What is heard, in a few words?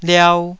"liau2", Taiwanese